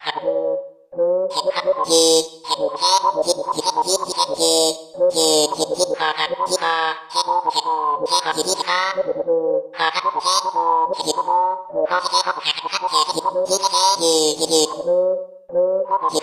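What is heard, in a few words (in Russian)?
искусственная речь квакающий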